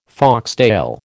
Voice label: "foxtail", American